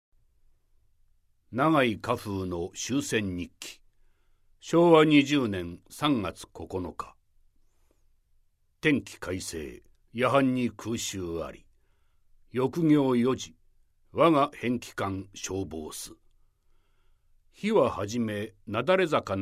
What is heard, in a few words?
narration